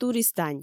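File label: "туристань", Komi, female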